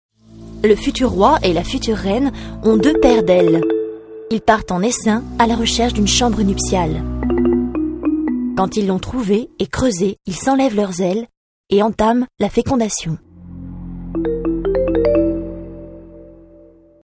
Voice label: Narration